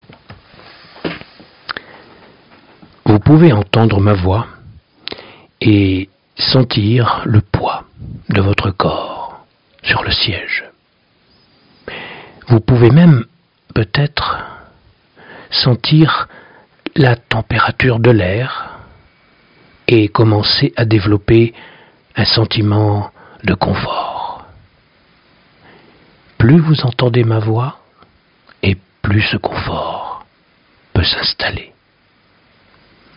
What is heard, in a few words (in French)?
expressif; ZOOM